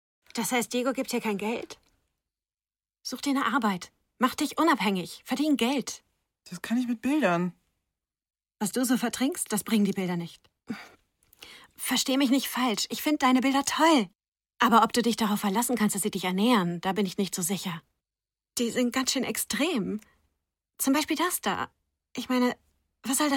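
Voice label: German, Character; GoLocalise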